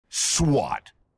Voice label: swat voice; halo reach